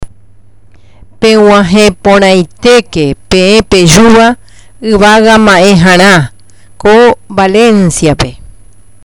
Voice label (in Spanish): guarani